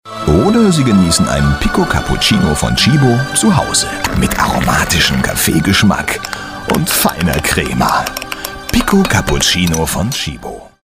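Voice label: German male fast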